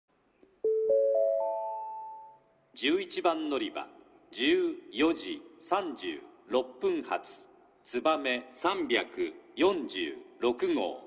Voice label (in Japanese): 久留米駅 11番のりば案内放送＋遅延放送・遅れ約6分（つばめ 博多行き）; 九州新幹線SIRIUS（シリウス）放送